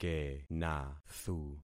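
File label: "kenathu", concatenated syllables; Affixing experiment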